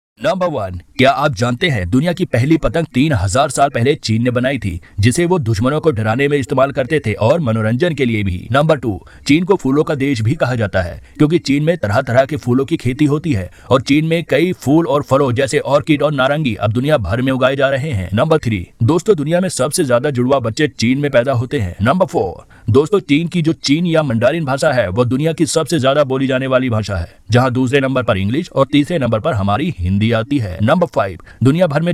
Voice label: best hindi short facts